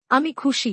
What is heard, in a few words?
I am happy Bangla; Expressing Feelings